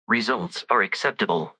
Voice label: happy, speech; fertig classic games